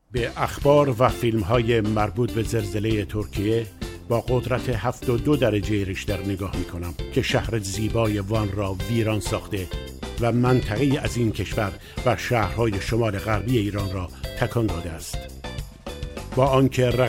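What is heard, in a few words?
farsi male narration; voice demos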